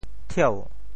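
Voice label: "thieu2", Teochew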